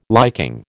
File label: "liking", American